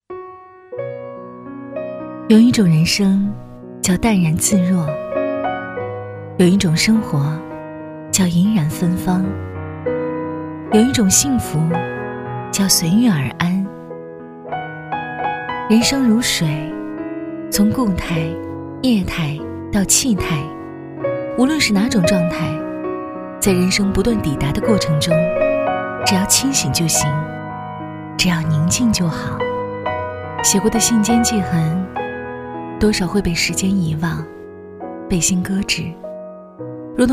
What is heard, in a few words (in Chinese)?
女, 微电影; 人生三态